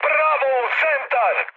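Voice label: Serbian bomb success mood high, speech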